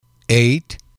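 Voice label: "eight", english, male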